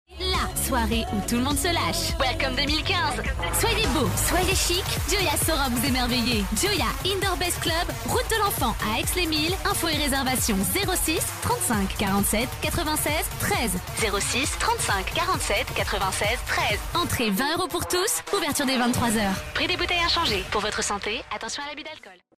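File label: French, female, commercial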